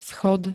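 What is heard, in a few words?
"schod", Slovak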